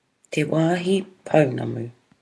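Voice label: "te wahipounamu", Māori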